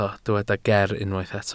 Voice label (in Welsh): "ger" Welsh